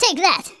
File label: "revenge", speech; Biggles